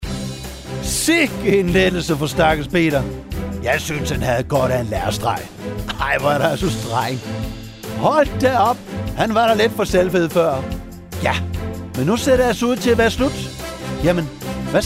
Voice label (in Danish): drama med speak; 14 Jesus er opstanden